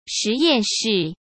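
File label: "实验室", Mandarin Chinese; chinese-flashcards; hsk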